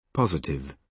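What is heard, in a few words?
"positive", British English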